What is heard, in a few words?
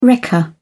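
"wrecker", English, British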